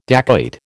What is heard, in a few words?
"dacoit", American